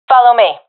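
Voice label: request follow me, professional female; vo; Counter Strike Model Pack; CS:GO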